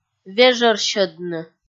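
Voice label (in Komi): "вежӧрсьӧдны", Komi, female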